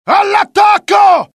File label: alert, dialogue IT; mohaa; attack